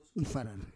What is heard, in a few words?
"ifarar", Roon